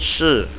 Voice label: "Yes", es, Adult, Male